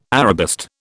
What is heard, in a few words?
"Arabist", British